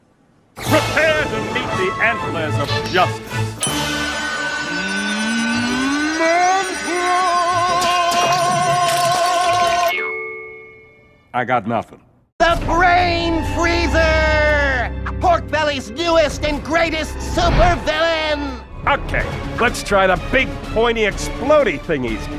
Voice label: men animation